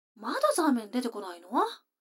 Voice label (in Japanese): 女の子 台詞